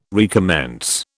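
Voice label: "recommence", British English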